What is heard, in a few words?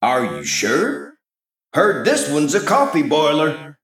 Male Mech